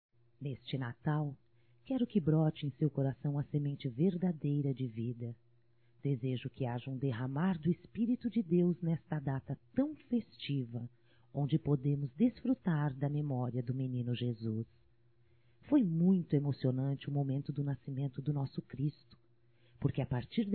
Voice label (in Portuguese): Romântico Feminino; Telemensagens 2017; Natal & Ano Novo